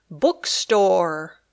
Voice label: "bookstore", English